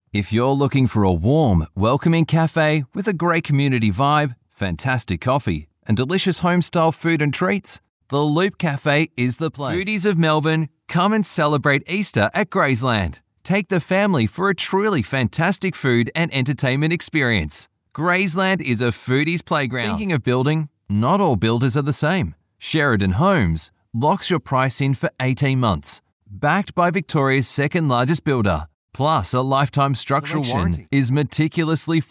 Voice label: Soft Sell, voice-overs